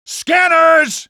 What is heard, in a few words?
scanners, male; Half-Life 2